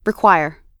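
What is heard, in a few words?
"require", US English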